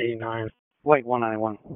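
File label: Dispatch, p25; WSO DISP 1; wake p25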